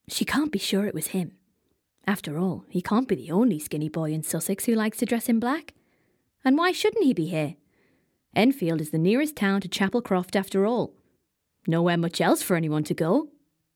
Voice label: Audiobook

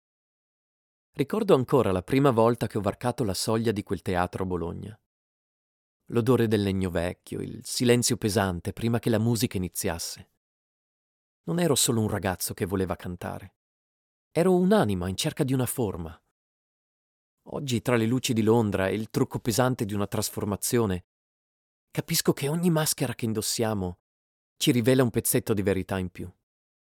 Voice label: Italian; European, Italian, Male, 20s-30s

